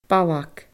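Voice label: "balg", Scottish Gaelic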